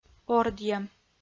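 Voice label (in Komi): "ордйӧм", Komi, female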